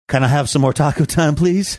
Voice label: saying can i have some more taco time please funny